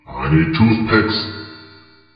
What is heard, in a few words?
order tree cutting, minions; mod minions